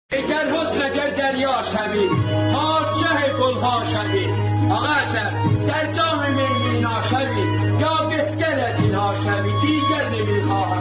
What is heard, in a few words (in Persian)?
دکلمه; دیگر نمیخواهم تو را